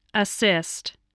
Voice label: "assist", english